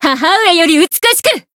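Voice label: Voice growth, Japanese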